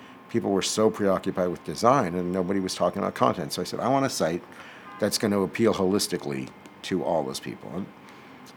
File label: Interview Horn BG Noise